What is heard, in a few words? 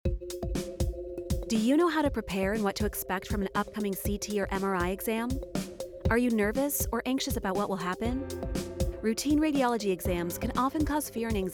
female; 60s